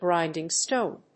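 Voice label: "grinding-stone", English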